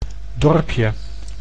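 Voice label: "dorpje", Dutch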